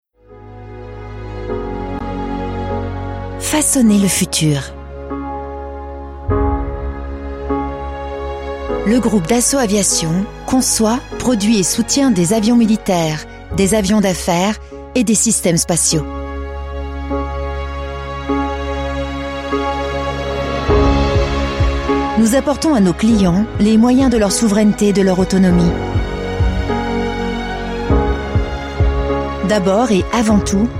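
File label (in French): Film Convention Posee, voix off féminine; Dassault Aviation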